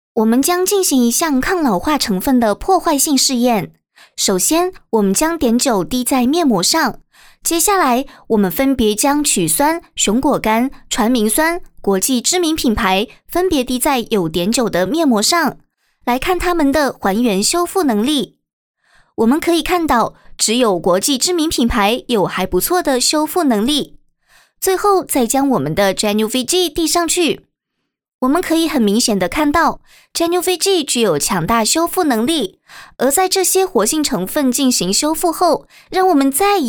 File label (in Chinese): 台湾腔